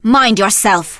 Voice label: Blood Elf Female Standard Pissed

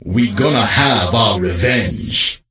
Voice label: Death Knight Troll Male Farewell

